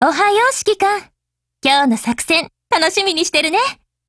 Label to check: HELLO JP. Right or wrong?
Right